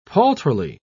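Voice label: "paltrily", British English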